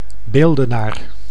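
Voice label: "beeldenaar", Dutch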